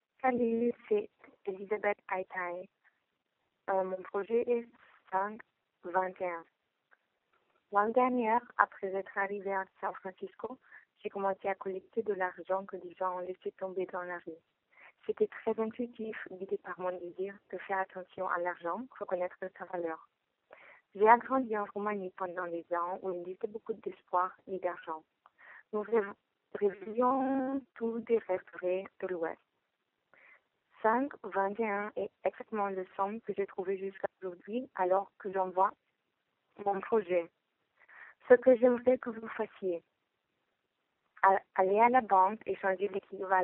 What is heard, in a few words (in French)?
francais